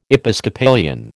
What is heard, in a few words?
"Episcopalian", American